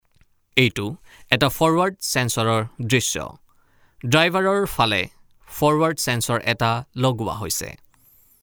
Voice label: E-LEARNING VO